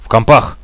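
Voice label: computers, Russian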